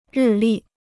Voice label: "日历", Chinese

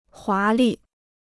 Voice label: "华丽", Chinese

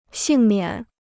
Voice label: "幸免", Chinese

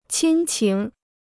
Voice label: "亲情", Chinese

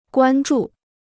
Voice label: "关注", Chinese

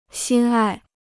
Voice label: "心爱", Chinese

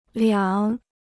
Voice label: "两", Chinese

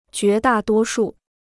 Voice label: "绝大多数", Chinese

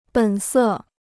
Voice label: "本色", Chinese

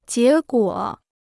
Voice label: "结果", Chinese

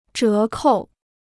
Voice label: "折扣", Chinese

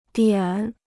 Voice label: "点", Chinese

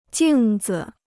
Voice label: "镜子", Chinese

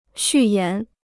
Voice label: "序言", Chinese

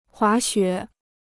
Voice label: "滑雪", Chinese

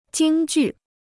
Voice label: "京剧", Chinese

